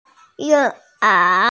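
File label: "ying1er2", Mandarin Chinese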